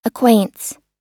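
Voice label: "acquaints", US English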